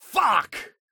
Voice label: Voice Male Late Thirties Swear "Fuck" Angry